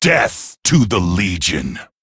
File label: Male Night Elf Attack